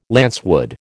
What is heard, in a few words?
"lancewood", American